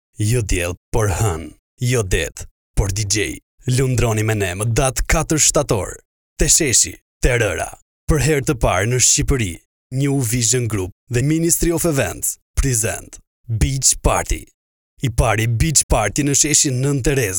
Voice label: arnavutca seslendirme erkek sesi

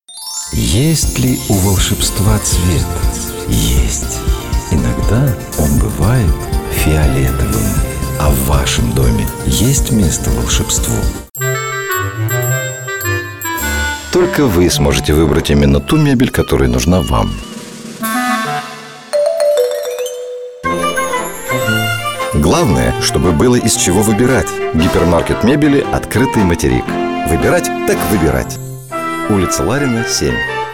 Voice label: rusca seslendirme erkek sesi

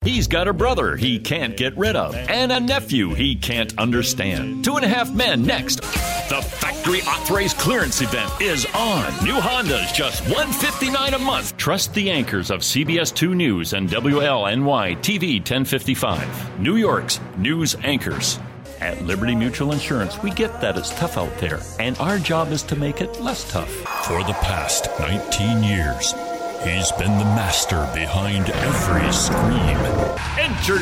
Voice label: ingilizce seslendirme erkek sesi

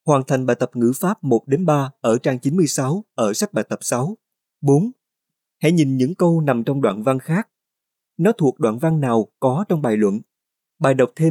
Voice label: vietnamca seslendirme erkek sesi

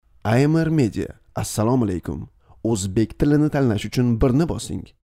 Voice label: ozbekce seslendirme erkek sesi

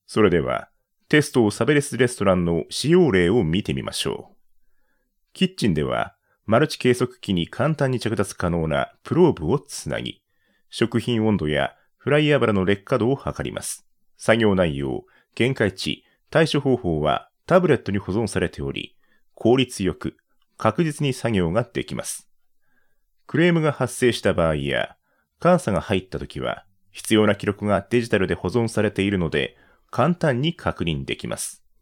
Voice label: japonca seslendirme erkek sesi

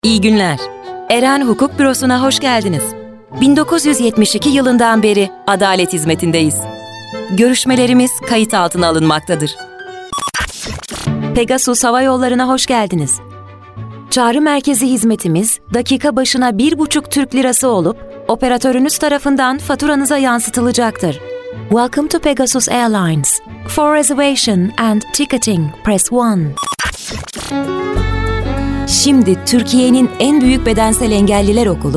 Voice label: santral seslendirme

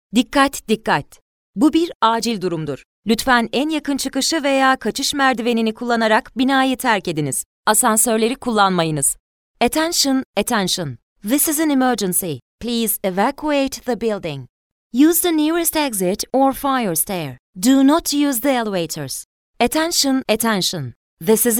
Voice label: acil durum anonsu seslendirme, uyari anonsu

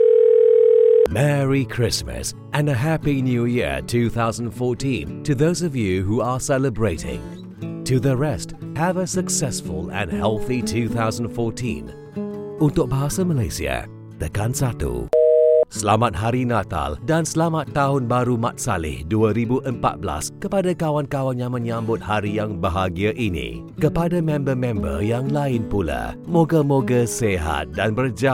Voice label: malayca seslendirme erkek sesi

